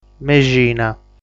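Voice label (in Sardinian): "Mexina", Sardinian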